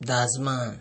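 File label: "dazman", Nigerian Pidgin, male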